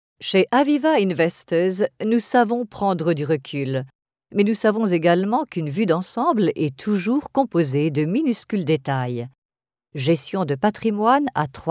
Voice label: French

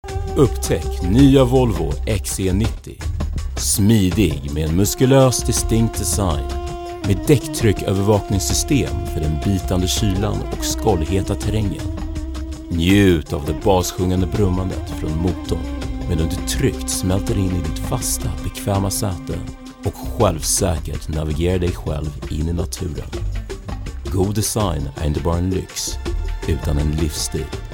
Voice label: Swedish; Volvo

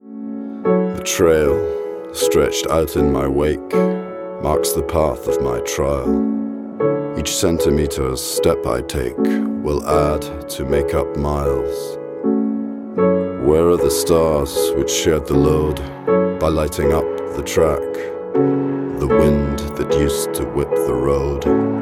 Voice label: clothing Commercial

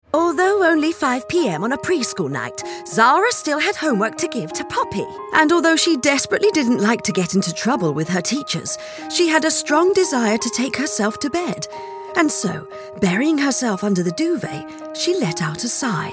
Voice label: Childrens Character Mix ALL VOICES

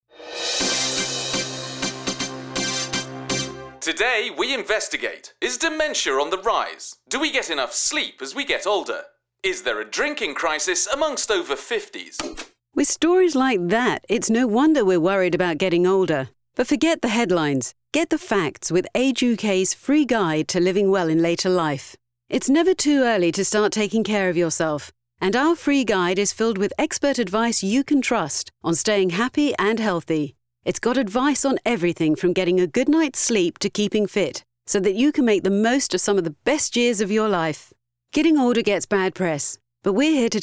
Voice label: Radio

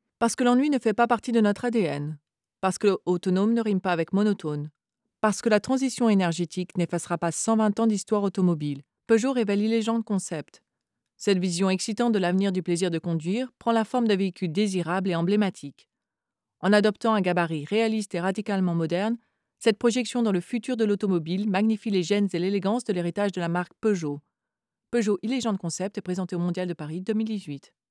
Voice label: French Advert Sample

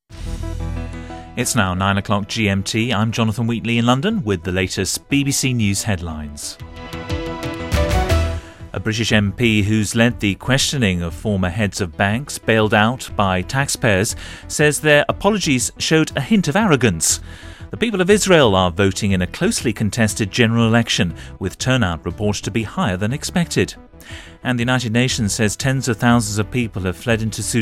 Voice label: Corporate

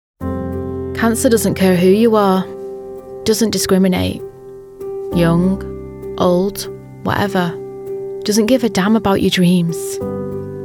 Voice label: Charity Reel

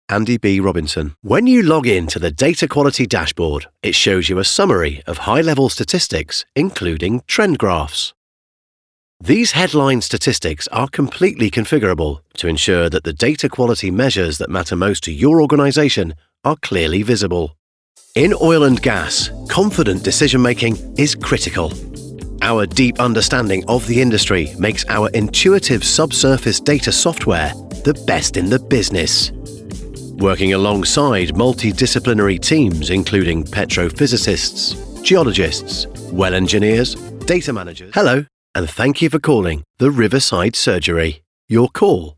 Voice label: corporate

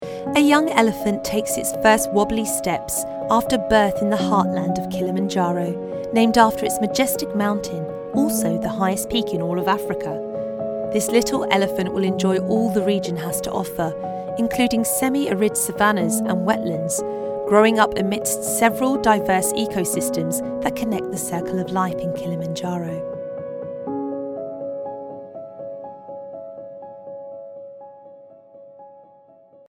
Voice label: wildlife documentary

